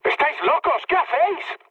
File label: player killed ally, mood med, speech; War Thunder